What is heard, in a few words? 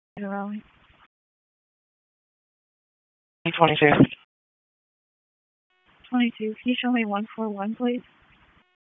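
Police District Dispatch; Raleigh; Southeast; wake; p25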